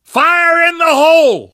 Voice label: grenade, survivor; voice; source engine; left 4 dead 2; namvet

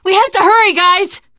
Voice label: hurry up, teen girl; survivor voice

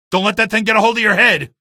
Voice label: alert head, male; video games; npc; source engine; episodic; episode 1

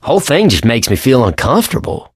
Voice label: wedding witch dead, survivor voice; Left 4 Dead 2; Source Engine; video games

